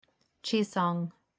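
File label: Chisong, pronunciation guide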